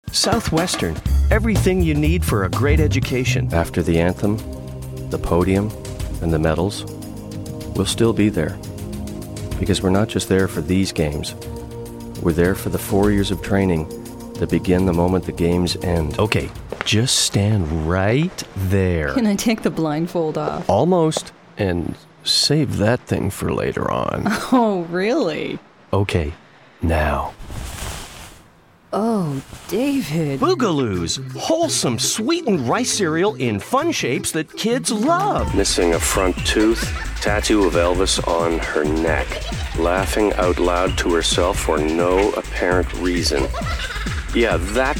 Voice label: Commercial